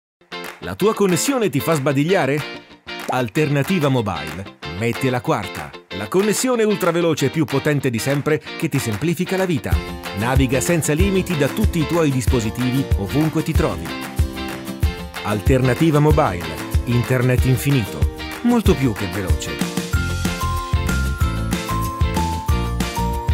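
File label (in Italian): comercial Italia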